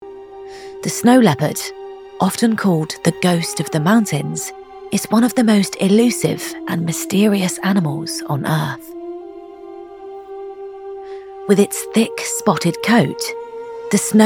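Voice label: Narrator, Relatable, Youthful, Energetic, Warm, Conversational, Authentic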